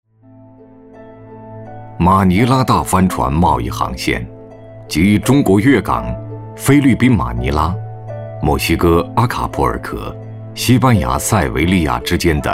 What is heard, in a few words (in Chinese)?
男 纪录片 大航海时代